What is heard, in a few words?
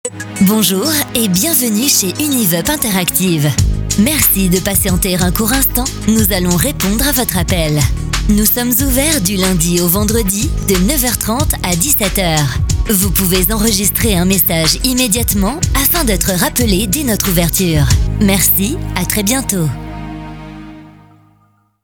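french interactive voice response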